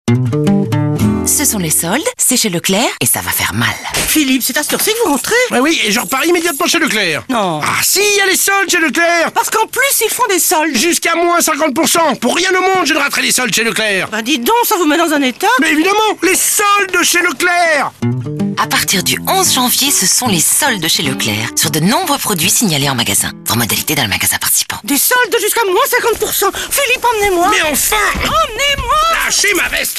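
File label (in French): spot, spots radio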